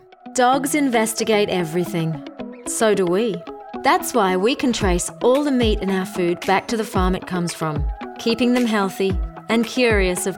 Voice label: Voice Actor; BigMouthVoices